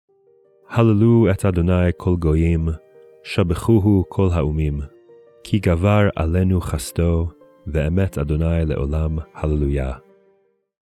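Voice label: poetry and prayer psalm hebrew; psalm 117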